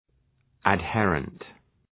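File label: "adherent", English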